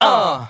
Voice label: "uh" group of men; ooh ahh; uh um